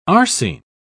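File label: "arsine", British English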